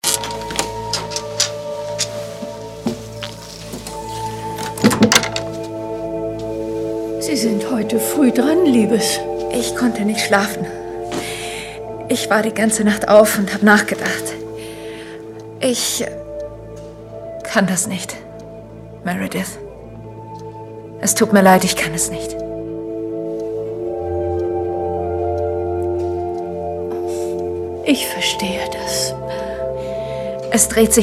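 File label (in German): acting, Rau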